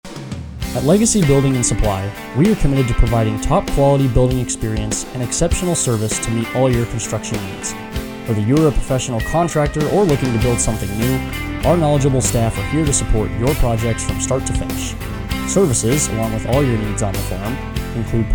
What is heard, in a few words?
Building & Supply Commercial; Legacy